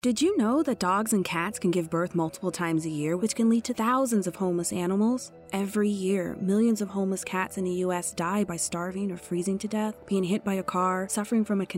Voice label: radio spot, spay today